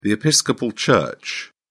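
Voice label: "episcopal church", British English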